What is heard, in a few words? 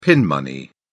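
"pin money", British English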